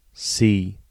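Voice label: English alphabet c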